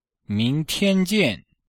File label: "ming2 tian1 jian4", Chinese